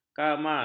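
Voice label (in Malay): "kamar", Malay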